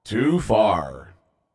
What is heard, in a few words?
Too Far From District, Announcer